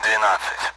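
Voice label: Speech Sound Russian Common AWACS Numbers; DCS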